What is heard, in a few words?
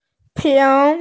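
"ping2", Mandarin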